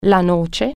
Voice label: nut, italian